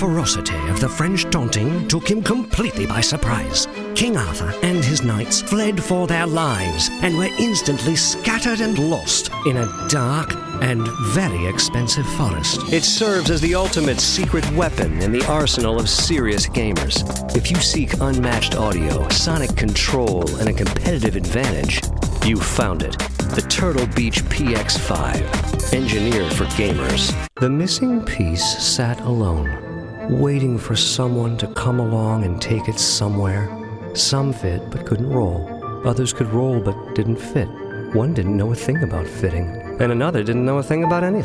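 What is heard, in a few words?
voice over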